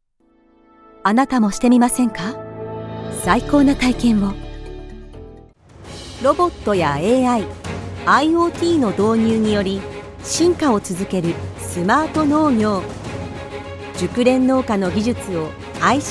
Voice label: japanese commercial